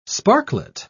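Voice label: "sparklet", British English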